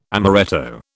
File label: "amoretto", British